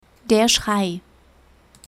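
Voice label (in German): "schrei", German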